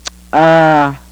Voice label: dental, xoo place